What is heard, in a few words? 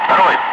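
Russian loud; Ka-50; Wingman; DCS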